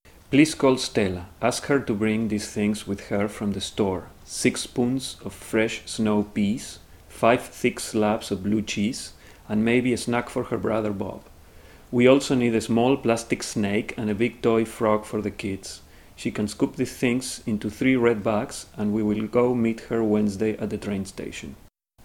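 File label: ARGENTINA, Accents of English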